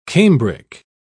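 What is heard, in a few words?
"cambric", British English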